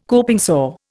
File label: "coping saw", Indian